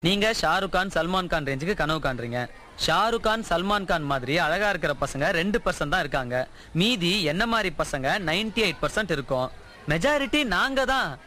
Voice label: tamil dialogue; funny